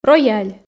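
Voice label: "рояль", Komi, female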